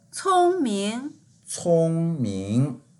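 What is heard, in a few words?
"cong1ming2", Mandarin Chinese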